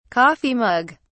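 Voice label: "coffee mug", English, British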